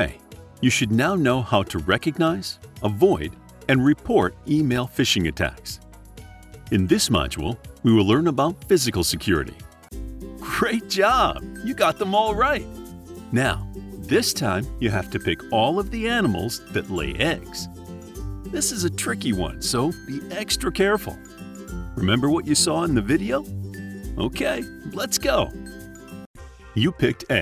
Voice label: e-learning